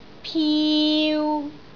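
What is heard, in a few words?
"piu3", Cantonese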